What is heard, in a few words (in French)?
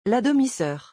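"La demi soeur", French; vocabulaire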